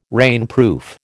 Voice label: "rainproof", American